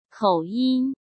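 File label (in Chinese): "口音", Mandarin Chinese; chinese flashcards; hsk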